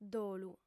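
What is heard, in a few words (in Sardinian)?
"dolu", female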